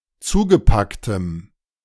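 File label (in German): "zugepacktem", German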